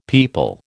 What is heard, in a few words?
"peepul", American